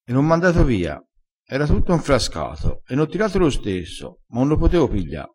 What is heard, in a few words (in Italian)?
"piglia", crespinese